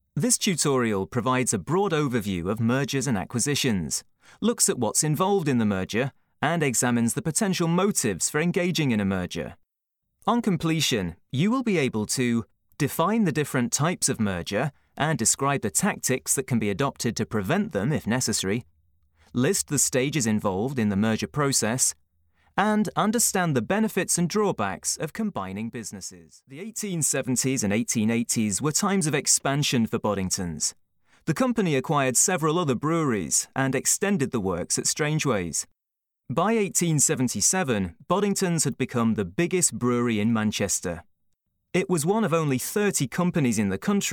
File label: Corporate; Corporate Reel